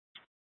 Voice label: Phx PD Fire Dispatch; RWC

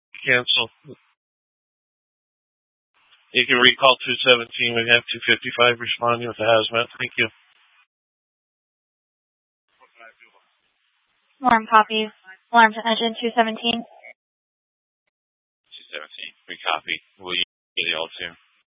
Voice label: Fire Departments, scanner

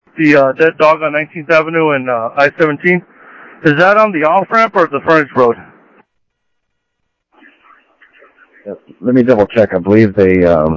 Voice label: scanner audio, ADOT Landscp; ArizonaDepofTran

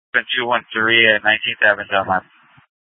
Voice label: Light Rail Ops, scanner

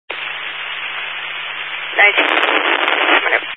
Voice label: Highway Patrol, Phoenix Metro West Area, scanner

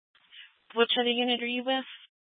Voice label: Dispatch, scanner; Mesa PD Dpt; 4 Sprstn Dispatch

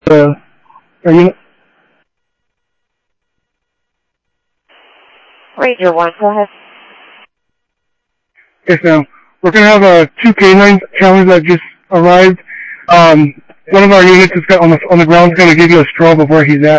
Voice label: Interop Mutual Aid, scanner audio; G1 Interop MutualAid-InterS; ThompsonPeakHigh

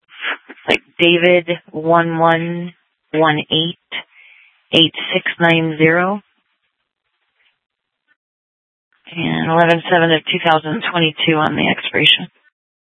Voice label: Sheriff, scanner P25

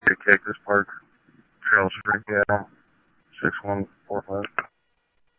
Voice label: Scottsdale Police, scanner audio

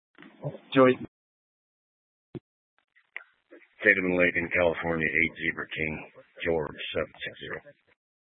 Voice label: Police Dispatch

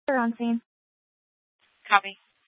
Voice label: Fire Departments Mesa Simulcast; TOPAZ

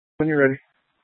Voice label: Light Rail Emergency, scanner

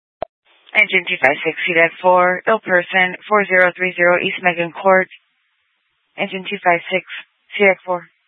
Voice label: FD Dpts VHF 156.240 Patch

